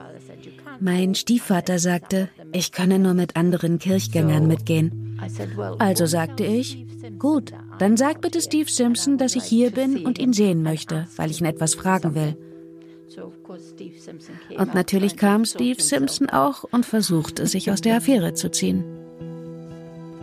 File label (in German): Doku Royal Scots; Voice Over